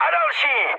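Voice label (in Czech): Czech player killed enemy, mood medium; War Thunder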